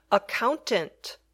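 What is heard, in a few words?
"accountant", English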